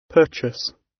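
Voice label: "purchase", British English; Design and innovation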